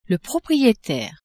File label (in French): "proprietaire", French; maison